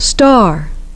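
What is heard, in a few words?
"star", English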